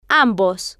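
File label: "ambos", Spanish, female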